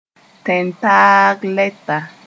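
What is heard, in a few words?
"tẽn-taag lɛta", Mooré; ambassador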